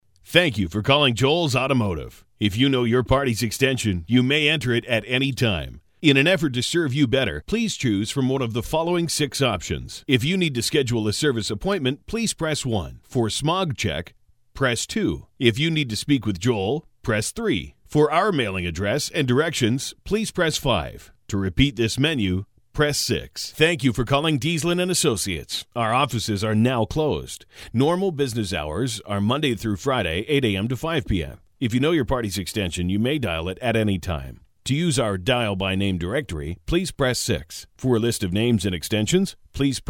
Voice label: on hold

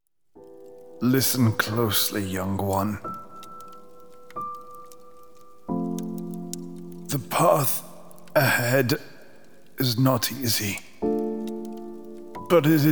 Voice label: CGI Movie - Wise Mentor, Cartoon Voice Over

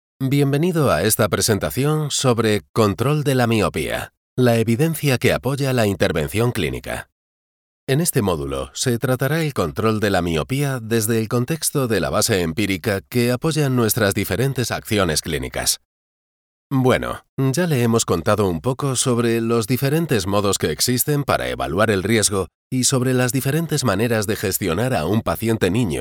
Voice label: Spanish Castilian medical

